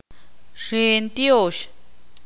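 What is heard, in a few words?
"Cofradía" Spanish, Ixil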